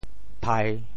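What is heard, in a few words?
"phai3", Teochew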